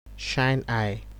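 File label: "shine eye", Nigerian Pidgin, male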